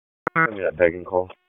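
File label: Police Northeast District Dispatch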